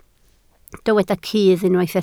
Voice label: "cudd", Welsh